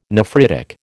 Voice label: "nephritic", American